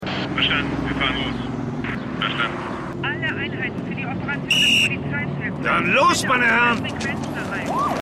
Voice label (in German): Einheit Drei Einheit Zwei Funk Zentrale; The Wire